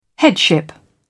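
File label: "headship", British English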